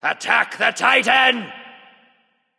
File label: vox attack m; smite; bacchus